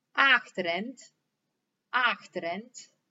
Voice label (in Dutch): "Aachterend", dialect Sprang-Capelle